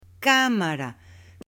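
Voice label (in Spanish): "CAMARA", Spanish, female; AppLenguaje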